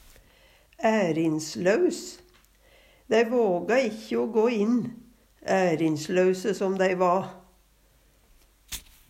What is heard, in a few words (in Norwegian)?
"ærinslaus", Numedalsmål; Adjektiv